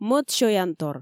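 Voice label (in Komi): "мӧд сёянтор", Komi, female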